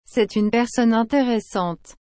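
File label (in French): "Cest une personne interessante", French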